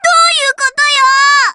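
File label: "doyukoto", Japanese; lambda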